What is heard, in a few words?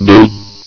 "boom", male